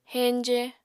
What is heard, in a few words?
"heñje", apatani